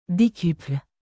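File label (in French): "decuple", French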